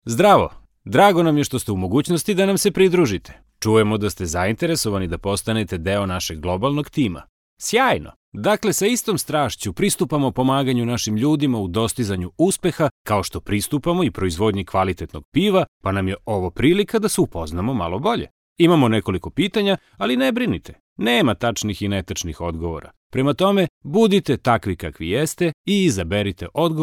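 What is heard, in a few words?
Serbian, male, narration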